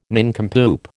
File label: "nincompoop", American